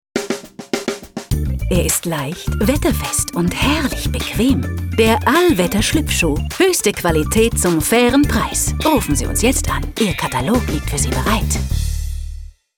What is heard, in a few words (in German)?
Werbung